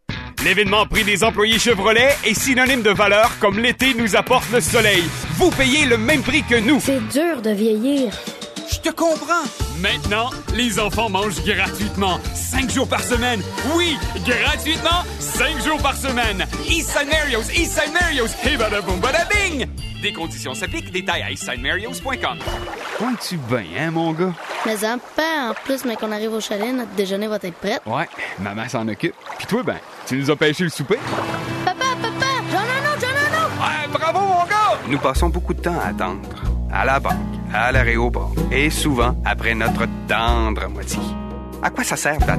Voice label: French Canadian M Comercial; voice over